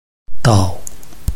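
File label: "dao", Chinese, neutral tone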